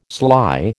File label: "sly", American